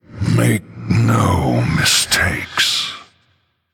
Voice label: Male Human Oops